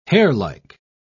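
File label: "hairlike", British English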